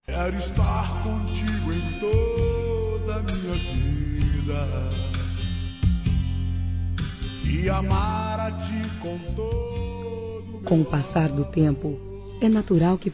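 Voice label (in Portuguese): Trilha ANIVERSÁRIO ROMANTICO, Gospel, fem; Telemensagens; Telemensagens 2017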